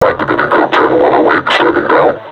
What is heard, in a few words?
taunt, vo combine suppressor; Half Life Alyx